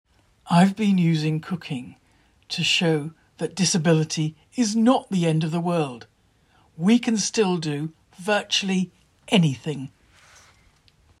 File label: recipe voice recording; Baking blind